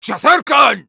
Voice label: incoming es

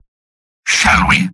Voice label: battlecry; Spy; mvm

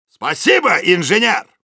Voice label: thanks for the teleporter, ru; Soldier

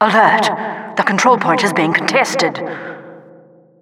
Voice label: Announcer control point warning

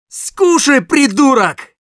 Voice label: special completed, ru

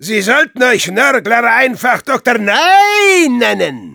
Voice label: taunts, de

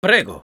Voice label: thanks, es

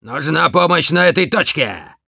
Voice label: help me capture, ru; Demoman

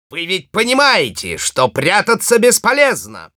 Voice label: taunts, ru

